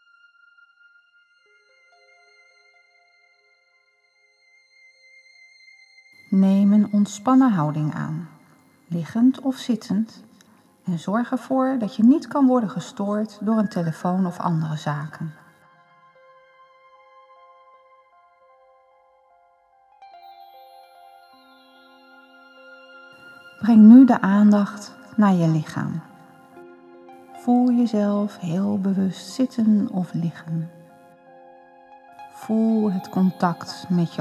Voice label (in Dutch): Loslaat Meditatie; wat is de kunst van dingen loslaten